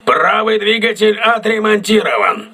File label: voice message right engine repaired, crew ships russian